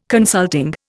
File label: "consulting", Indian